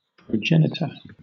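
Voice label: "progenitor", British English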